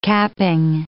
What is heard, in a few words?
"capping", English